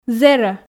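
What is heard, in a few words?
"зэрӧ", Komi, female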